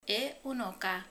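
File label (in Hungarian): "unoka (lány)", Hungarian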